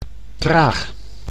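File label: "traag", Dutch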